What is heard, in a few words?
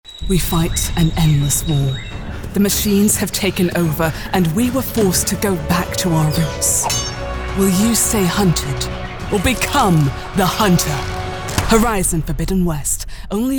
Horizon, cinema trailer; en-GB